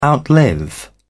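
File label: "outlive", British English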